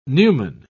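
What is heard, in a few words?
"numen", British English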